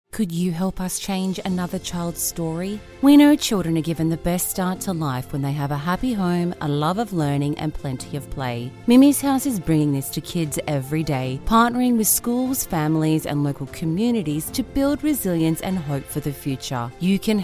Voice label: advert; Mimis House